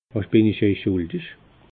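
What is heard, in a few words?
"schuldig", Alsatian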